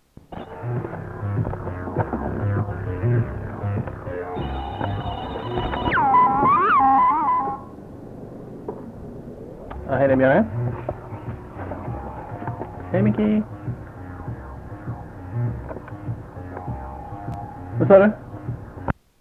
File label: phone call